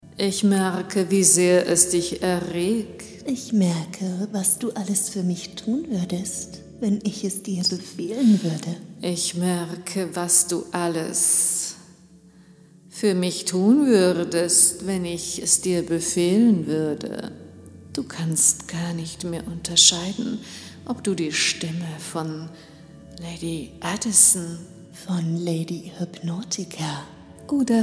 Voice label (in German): erotische Hypnose; Ohrgasmus; Der Kandidat; Was würde wohl passieren, wenn du gleichzeitig von zwei Hypnotiseurinnen hypnotisiert werden würdest?